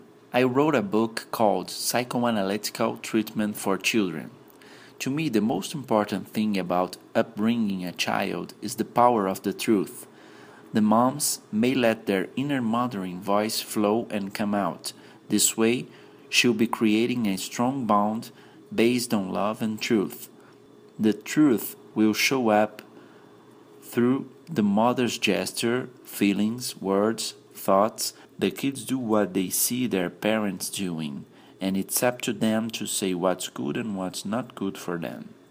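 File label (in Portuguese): Inglês - América do Norte, Voz Madura, Masculino; Teste em inglês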